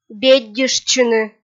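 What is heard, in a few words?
"беддьыштчыны", Komi, female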